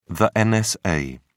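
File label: "nsa", British English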